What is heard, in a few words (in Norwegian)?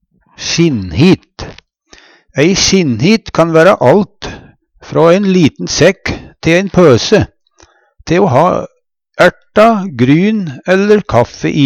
sjinnhit, Numedalsmål; Ei hit hadde aldri fatlar. Blei bruka til å ha turrmat i på tur, på reise.